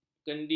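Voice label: "kendi", Malay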